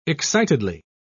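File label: "excitedly", British English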